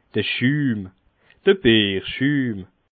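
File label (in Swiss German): "schum", Bas Rhin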